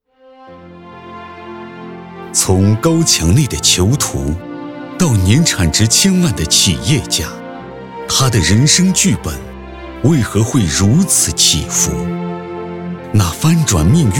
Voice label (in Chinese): 男 专题 纪录片; 北京台纪录片